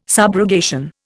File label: "subrogation", Indian